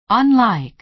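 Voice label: "unlike", American English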